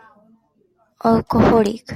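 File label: "alcoholic", Japanese, female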